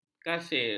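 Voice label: "kasir", Malay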